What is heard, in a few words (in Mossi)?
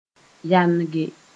"yange", moore